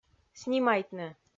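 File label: "снимайтны", Komi-Zyrian, female; kpv audio dict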